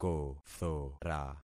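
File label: "kothora", concatenated syllables; Affixing experiment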